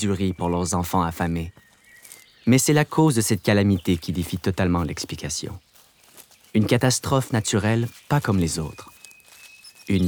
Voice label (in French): Narration Famine En Inde